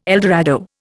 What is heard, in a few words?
"eldorado", Indian